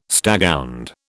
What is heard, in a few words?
"staghound", British